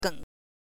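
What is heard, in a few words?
"geng", Mandarin pinyin, neutral tone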